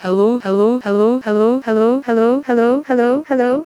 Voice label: Female Trustworthiness Continuum Low To High

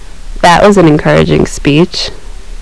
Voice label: American Sarcastic

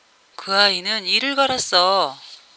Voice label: Ditropic Korean Idiomatic

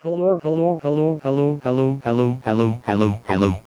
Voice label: Male Dominance Continuum Low To High

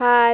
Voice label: Voice F

